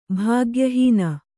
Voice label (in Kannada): "ಭಾಗ್ಯಹೀನ", Kannada